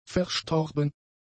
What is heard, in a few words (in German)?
"verstorben", German; adjectives